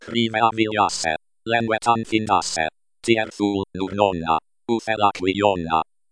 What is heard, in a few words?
abc sule Espeak